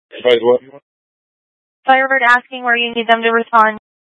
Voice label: Mutual Aid-Intersystem Interop B, scanner